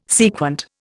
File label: "sequent", Indian